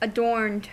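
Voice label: "adorned", US English; pronunciations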